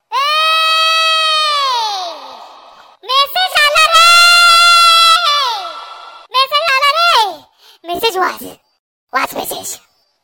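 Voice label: Japanese girl screams about a new message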